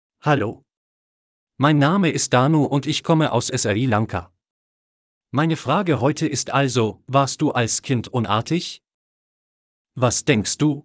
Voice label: Audiobook Voice